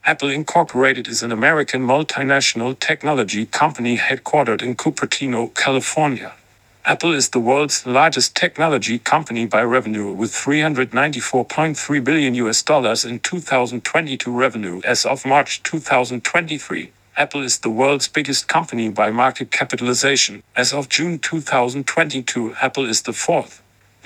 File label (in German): iOS17 Eigene Stimme